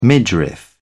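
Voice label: "midriff", British English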